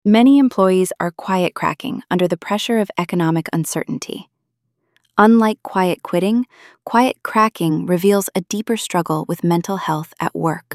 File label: quiet cracking